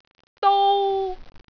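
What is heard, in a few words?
"dou1", Cantonese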